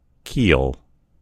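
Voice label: "keel", US English; gcompris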